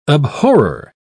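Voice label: "abhorrer", British English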